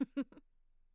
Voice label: Emote Positive; Mako

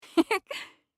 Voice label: Emote Positive

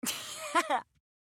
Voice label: Asher Emote Positive

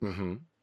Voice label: Emote Positive

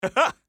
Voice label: Emote Positive

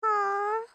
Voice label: Emote Negative; Juno

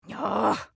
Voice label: Emote Negative; Asher

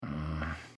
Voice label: Rune Emote Negative

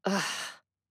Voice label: Emote Negative

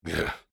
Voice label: Emote Negative; Drek'ar

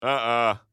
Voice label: X Emote Negative

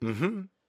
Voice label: Emote Positive

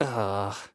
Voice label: Zentaro Emote Negative

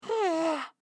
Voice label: Emote Negative

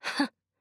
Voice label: Nao Emote Positive

